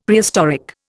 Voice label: "Prehistoric", Indian; Dictionary

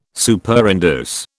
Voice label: "Superinduce", British

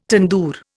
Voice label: "tandoor", Indian

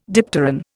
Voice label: "dipteran", Indian

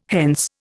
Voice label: "hence", Indian

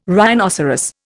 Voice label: "rhinoceros", Indian

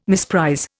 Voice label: "misprize", Indian

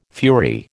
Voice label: "fury", American

